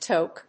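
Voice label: "toque", English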